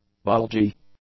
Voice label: "bulgy", English; adjectives

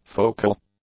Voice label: "focal", english; adjectives

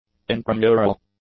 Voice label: "intramural", english; adjectives

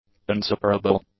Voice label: "unseparable", english; adjectives

